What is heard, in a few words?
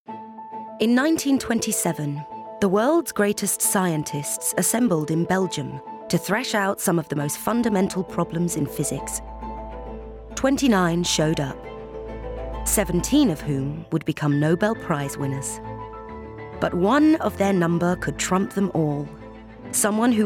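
Documentary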